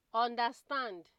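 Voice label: "understand", Nigerian Pidgin, female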